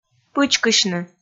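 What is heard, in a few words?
"пычкысьны", Komi, female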